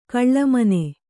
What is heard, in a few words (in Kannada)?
"ಘುಳುಮ್ಮನೆ", Kannada